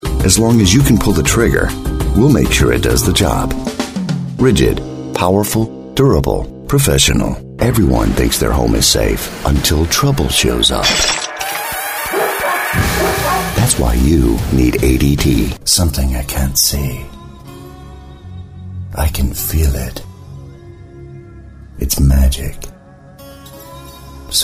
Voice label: COMMERCIAL Standard Length